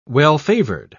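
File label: "well-favored", British English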